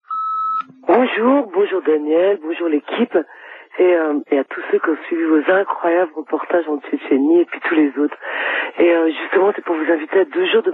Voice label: Tchetchenie repondeur

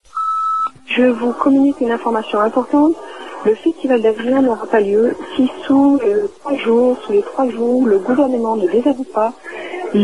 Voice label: Intermittents repondeur; Avignon

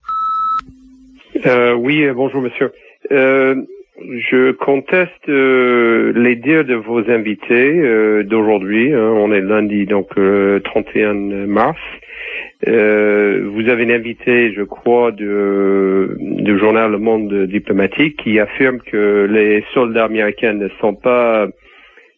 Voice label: Irak pro US repondeur

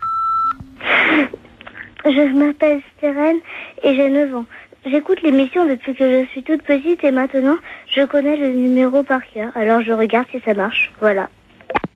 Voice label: Essai répondeur

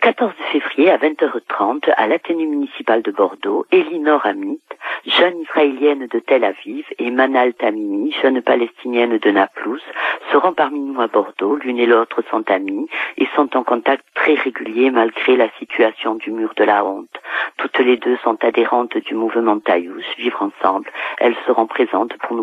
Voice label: Visite Poutine repondeur

